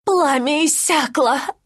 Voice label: death, ru; Lina; dota2